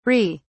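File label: "ree", English, British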